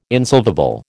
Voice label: "insolvable", American